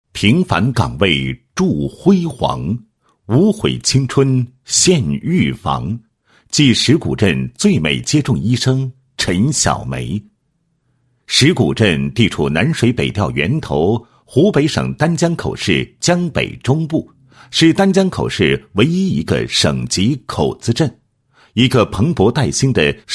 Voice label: 男 人物 一个平凡的人 记最美接种医生; 一个平凡的人 记最美接种医生陈某

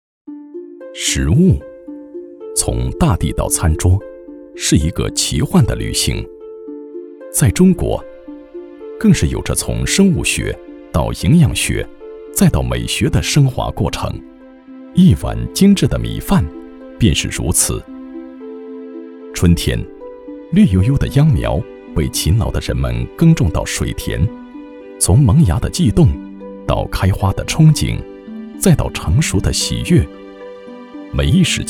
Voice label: 男 舌尖感觉 烤肉拌饭

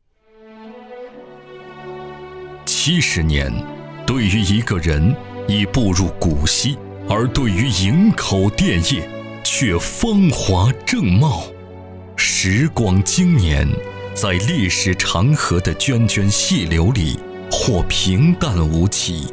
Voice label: 男 专题 企业专题

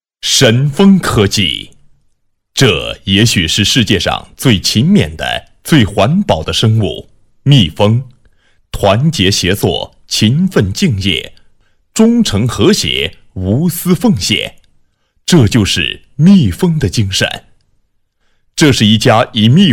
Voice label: 男7 专题 干音, 国语男声配音; 神蜂科技; 全能型

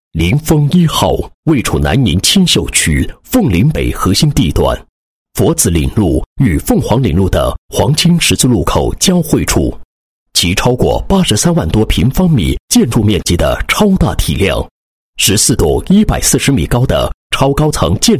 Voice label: 男 报告 商业规划经营; 某房地产商壹號商业规划经营

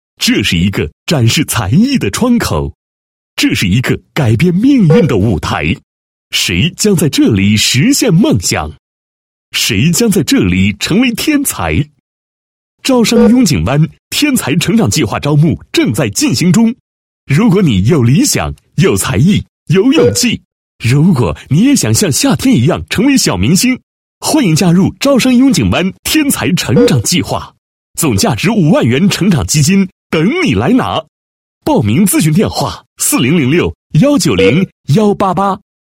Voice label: 男 房地产广告 活动宣传, 国语男声配音

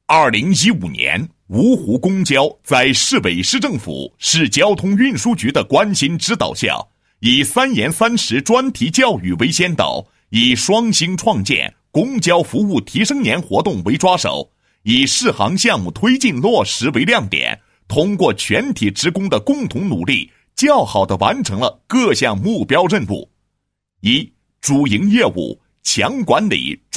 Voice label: 男 汇报 电视汇报片解说词, 配音; 公交集团2015年度电视汇报片解说词

